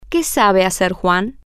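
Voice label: what does Juan know how to do, Spanish, female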